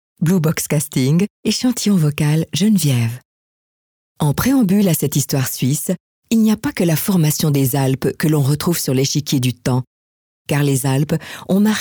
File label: OFF FR CH